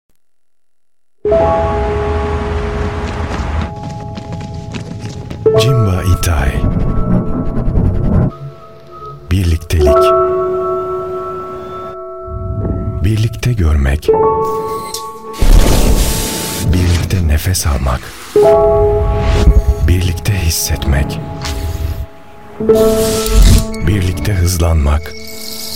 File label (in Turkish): seslendirme